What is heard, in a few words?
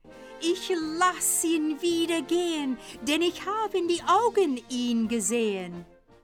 german